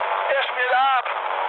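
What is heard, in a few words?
German aircraft destroyed mood med, speech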